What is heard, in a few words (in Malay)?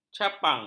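"capang", Malay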